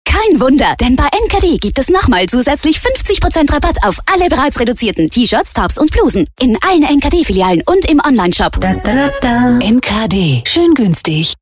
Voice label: German commercials special offer

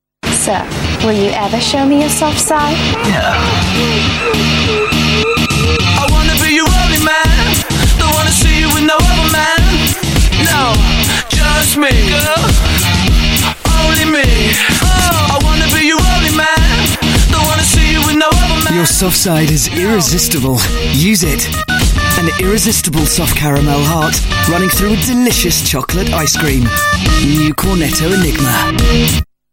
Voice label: UK English; Cornetto

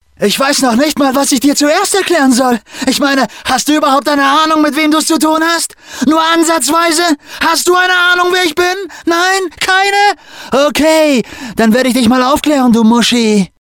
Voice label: German Male Video Game

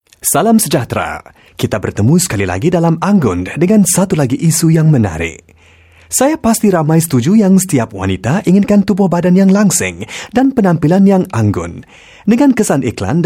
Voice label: Malay Male

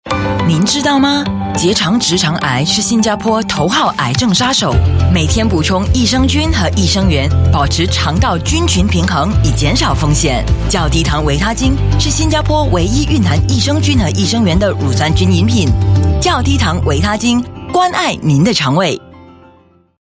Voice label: Mandarin, Mandarin China Female; VITAGEN Cancer

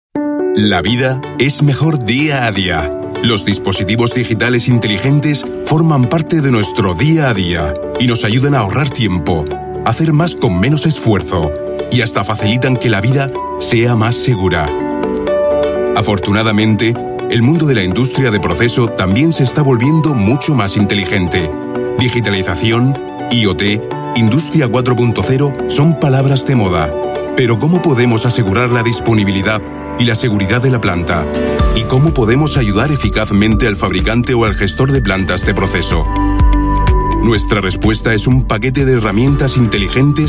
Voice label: Euro Spanish; Krone

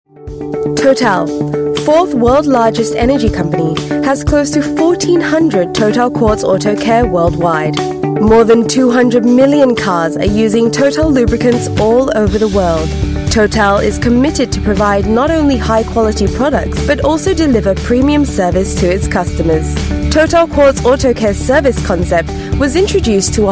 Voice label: Asian English Corporate; Total Oil

